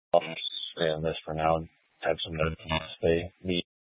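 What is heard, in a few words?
Police 1 Dispatch, Scanner audio recording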